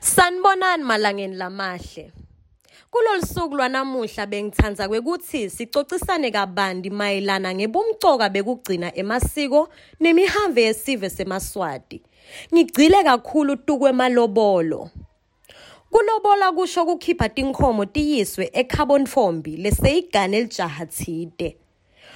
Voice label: SISWATI

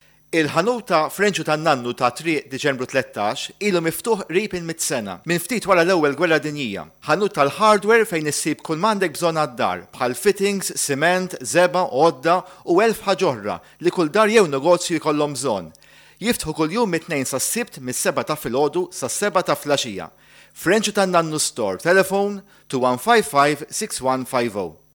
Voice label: MALTESE

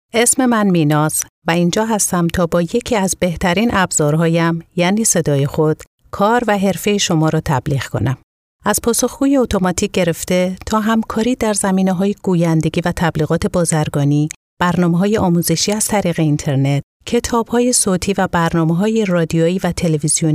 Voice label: Farsi

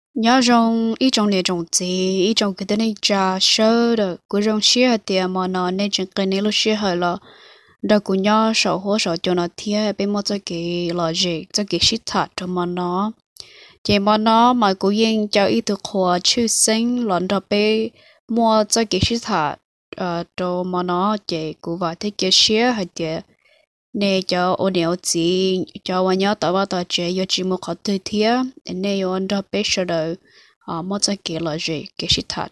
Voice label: Hmong

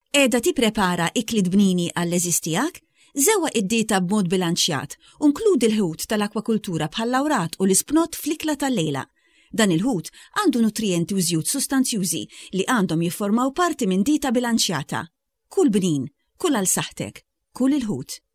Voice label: Maltese

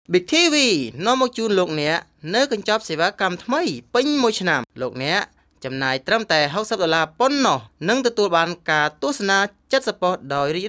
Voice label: Khmer spot

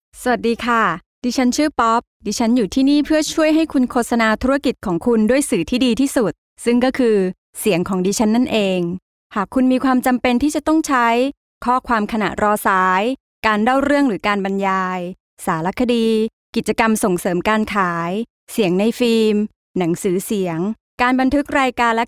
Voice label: Thai

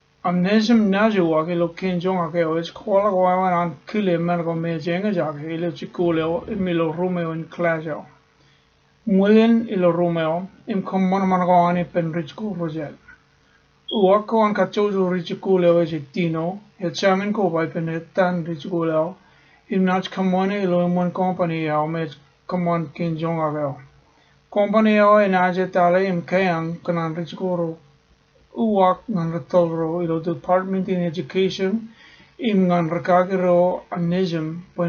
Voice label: Marshallese

